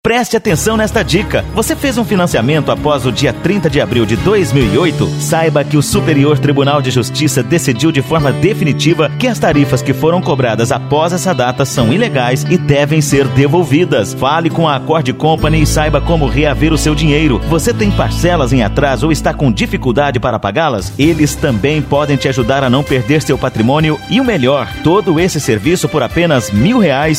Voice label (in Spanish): Locutor spot; acordy